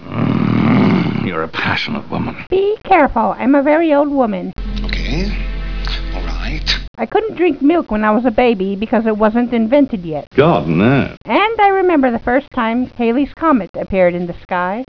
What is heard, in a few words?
old woman